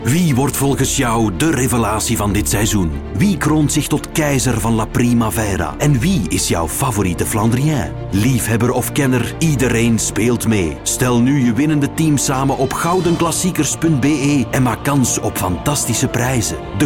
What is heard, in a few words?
Radio Spot; Gouden Klassiekers 2020